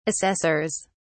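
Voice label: "assessors", British English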